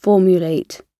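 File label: "formulate", British English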